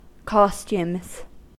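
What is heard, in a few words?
"costumes", American English; pronunciations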